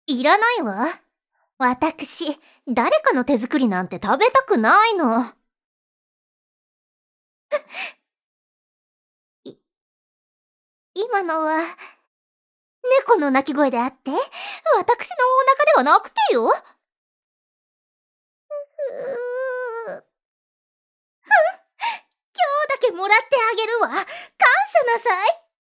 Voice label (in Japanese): ツンデレお嬢様; 女性